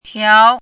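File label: "tiao2", Mandarin pinyin syllable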